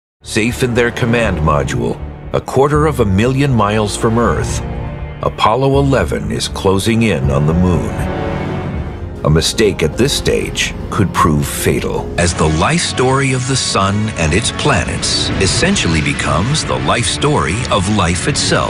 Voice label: narration